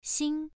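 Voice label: "xing" Mandarin Chinese, first tone, pronunciation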